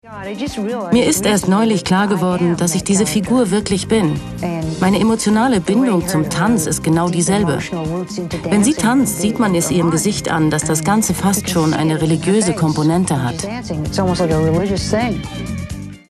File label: Voiceover